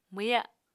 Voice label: "mwya", Apatani